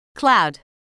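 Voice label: "cloud", English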